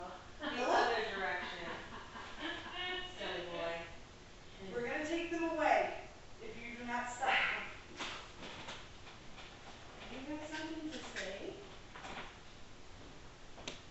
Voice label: public talking then noise no response; Gross Ile Depot Home; EVP